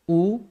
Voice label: uno - "jum", Maya

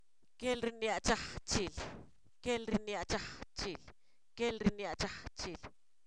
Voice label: Zapoteco, san miguel albarradas; sermón; sustantivos

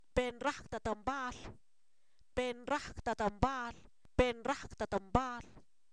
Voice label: apadrimamiento, Zapoteco, san miguel albarradas

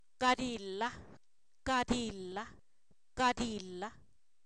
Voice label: Zapoteco, san miguel albarradas; problema(pleito); sustantivos

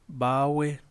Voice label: mar, Yaqui; Vocabulary

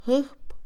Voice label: Mixe, Mujer; filoso; Percepción; INALI

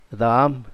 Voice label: "búho", Zapoteco Magdalena Ocotlan; Animales; INALI; Haspelmath

